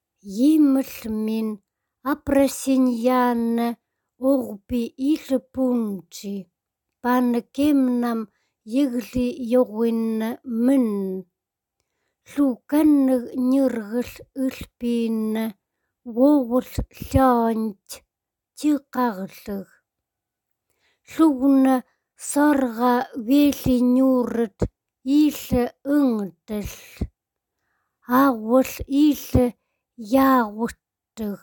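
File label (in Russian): хантыйский язык сургутский диалект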